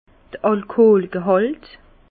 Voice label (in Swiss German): "alkoolgehalt", Bas Rhin; vin; teneur en alcool